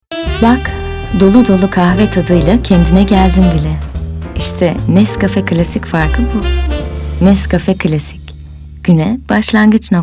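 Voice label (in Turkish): sicak sakin, seslendirme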